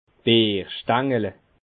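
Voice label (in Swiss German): "bierstengele", Bas Rhin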